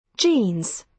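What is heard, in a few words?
"jeans", British English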